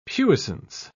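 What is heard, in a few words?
"puissance", British English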